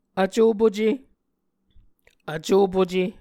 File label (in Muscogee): "vcopuce", Muscogee